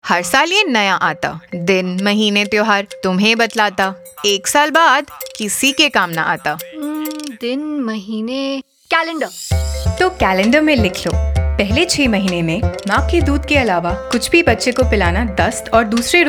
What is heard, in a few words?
Exclusive Breastfeeding Hindi, Audio Spot; poshan